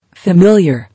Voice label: "Familiar", English